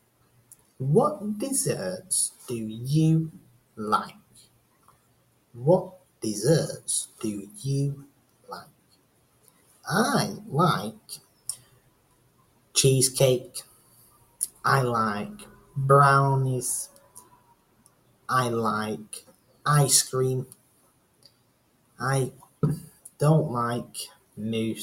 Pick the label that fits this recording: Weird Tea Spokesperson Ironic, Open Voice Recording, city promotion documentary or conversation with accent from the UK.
conversation with accent from the UK